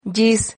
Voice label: "yis", Silabas

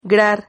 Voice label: "grar", Silabas

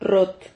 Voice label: "rrot", Silabas

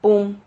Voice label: "pum", Silabas

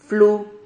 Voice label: "flu", Silabas

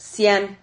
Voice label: "sian", Silabas

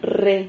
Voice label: "RRE", Silabas

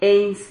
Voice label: "eins", Silabas

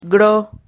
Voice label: "gro", Silabas

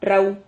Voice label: "rrahu", silabas

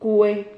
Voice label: "cue", Silabas

